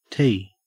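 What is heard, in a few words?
"tea", Australian English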